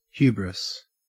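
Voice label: "hubris", Australian English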